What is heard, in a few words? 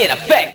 Effect Vox; Jungle; Vocals